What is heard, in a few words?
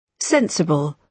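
"sensible", British English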